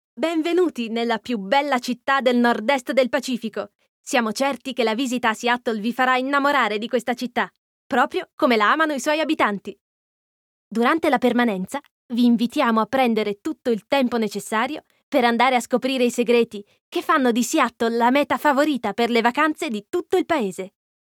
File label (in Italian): ITA AUDIOGUIDA